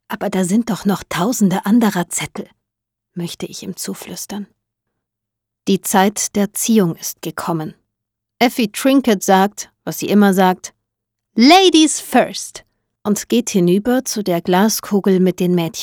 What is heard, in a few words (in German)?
Neutral